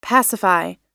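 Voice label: "pacify", American English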